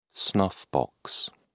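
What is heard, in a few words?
"snuffbox", British English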